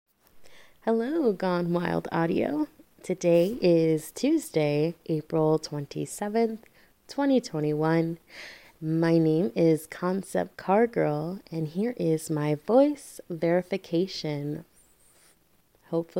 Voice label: Female verification

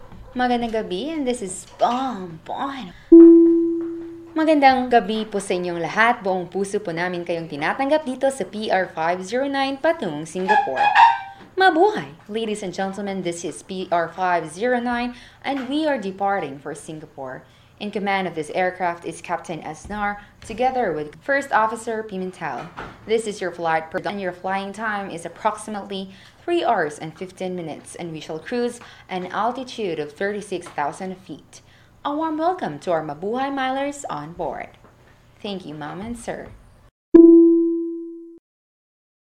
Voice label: Airplane Announcement

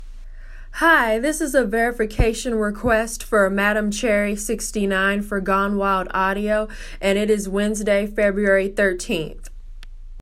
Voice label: Female; GWA Verification

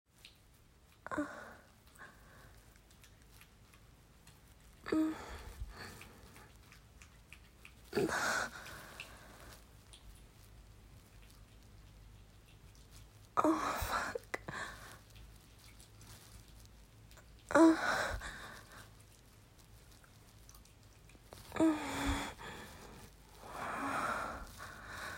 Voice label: F4M lil after classes ramblefap wet-noises solo

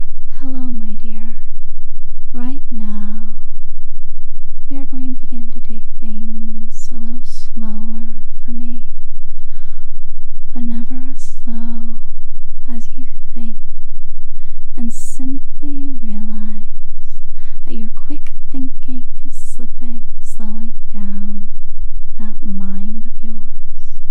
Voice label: Binaural Brainwashing; Erotic audio

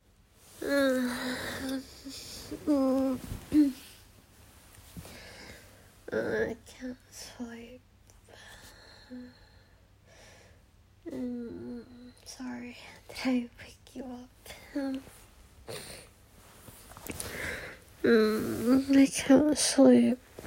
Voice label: Warm Me Up and Wear Me Out, Cozy, Sleepy noises; F4M; GFE; Kissing; Cuddles

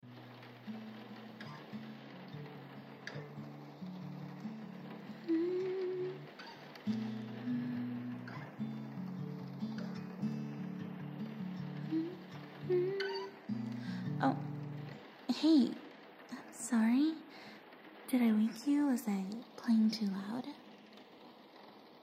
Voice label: F4M, Singing, Whispers, Guitar; Let's curl up on the couch and write a song together; GFE; Kissing; Sweet; Spooning; Rain Sounds

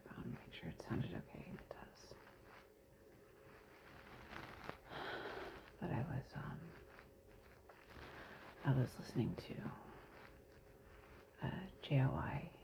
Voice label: Short babble with some mic issues

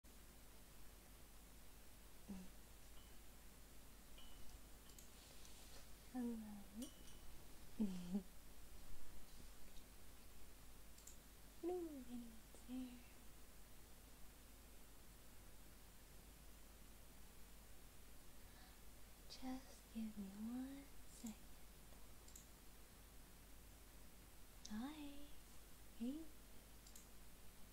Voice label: ASMR Live Stream; Dude That's Wholesome

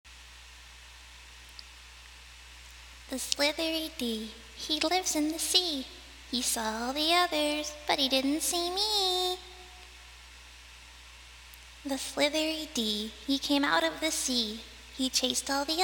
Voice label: Creepy little girl voice, Poem; The Slithery Dee by Shel Silverstein

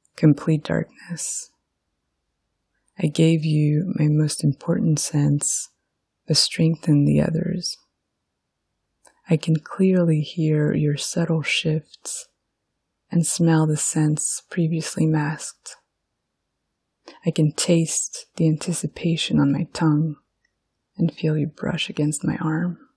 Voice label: Erotic Poetry; F4A; Blindfold; Enhanced Senses

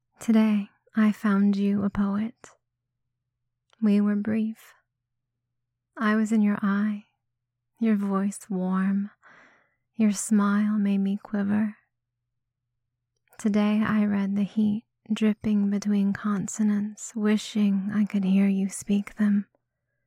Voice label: [F4A] [Poetry Reading]; Orange Blossom

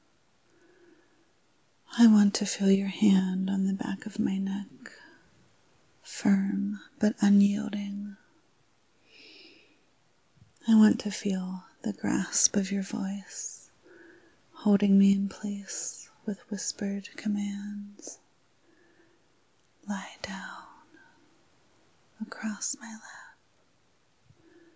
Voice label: [F4M] Thank you, Daddy [Erotic poetry][Sensual]; Spanking; Erotic audio

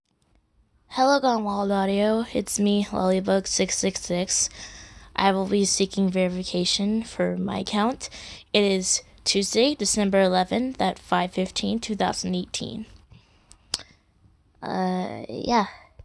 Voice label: F, Verification

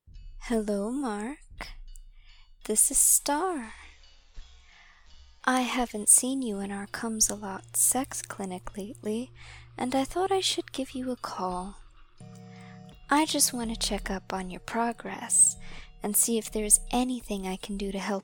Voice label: Voicemail Message Sample

